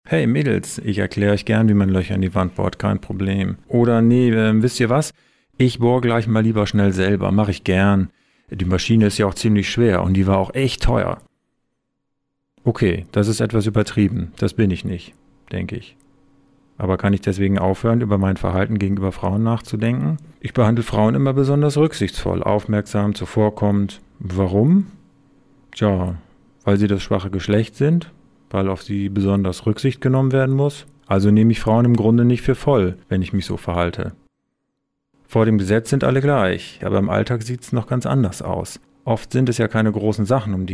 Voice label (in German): Kommentar; Themenwoche Frauen; Wie setzt man(n) sich mit Gleichberechtigung auseinander - Ein Kommentar; StadtRadio Göttingen